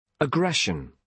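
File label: "aggression", British English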